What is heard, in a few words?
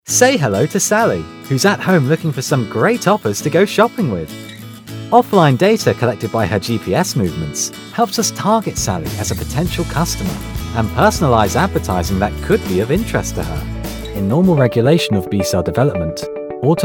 Corporate reel